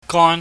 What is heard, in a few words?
"gon", chinese